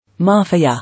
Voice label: "Mafia", English

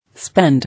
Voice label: "Spend", English

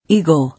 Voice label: "Eagle" English

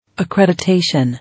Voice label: "Accreditation" English

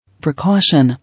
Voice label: "Precaution", English

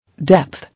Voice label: "Depth", English

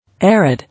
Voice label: "Arid", English

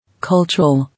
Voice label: "Cultural", English

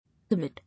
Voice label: "Amid", English

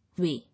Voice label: "Way", English

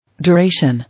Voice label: "Duration", English

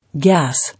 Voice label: "Gas", English

